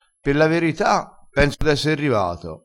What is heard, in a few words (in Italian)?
"pe", crespinese